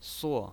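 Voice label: "suo", Mandarin Chinese pinyin, fourth tone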